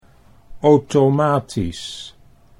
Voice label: "automatisch", Dutch

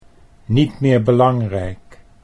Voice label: "niet meer belangrijk", Dutch

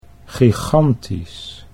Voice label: "gigantisch", Dutch; 'gigantic'

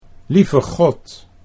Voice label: "lieve God", Dutch

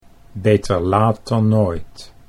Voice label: "beter laat dan nooit", Dutch

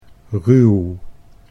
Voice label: "ruw", Dutch

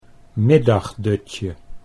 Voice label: "middagdutje", Dutch

